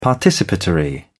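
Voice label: "participatory", British English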